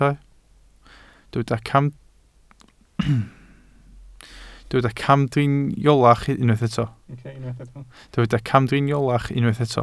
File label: "camdriniolach", Welsh